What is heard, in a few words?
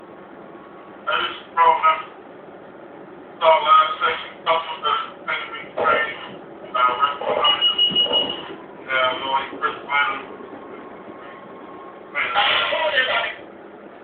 wmata speaker